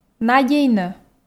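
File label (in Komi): "надейнӧ", Komi, female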